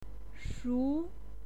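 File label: "shu", Mandarin Chinese, second tone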